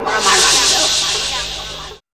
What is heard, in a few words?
VOX-FX; E-Lab; X Static Goldmine 1